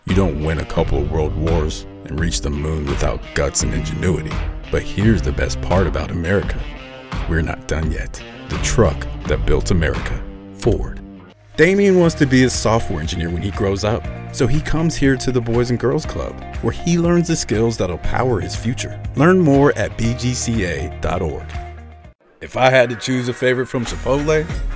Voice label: Commercial